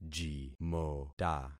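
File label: "jimota", concatenated syllables; Affixing experiment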